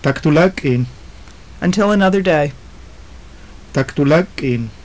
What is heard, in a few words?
conversation, maya; Tak tu láak' k'iin.; Until another day.; JUNP'IIT MAYA